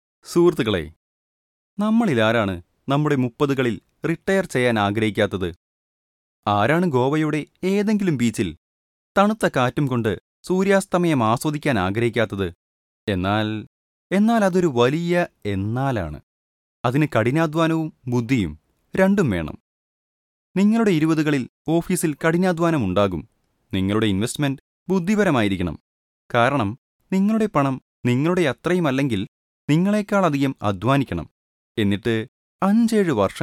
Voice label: E - learning Malayalam